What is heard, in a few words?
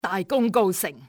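"success", cantonese, female; XBOX; enemy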